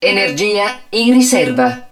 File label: HUD ENERGY LOW, Italian; XBOX; BattleEngineAquila